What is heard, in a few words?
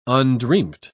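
"undreamt", British English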